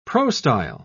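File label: "prostyle", British English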